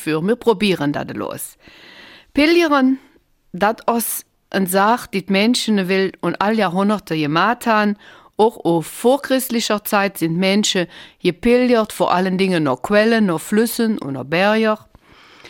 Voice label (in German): Eifeler Mundart; Pilgern nach Santiago de Compostela